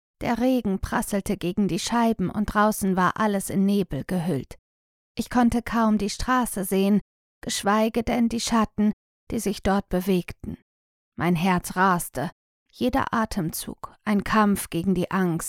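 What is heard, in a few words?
German, female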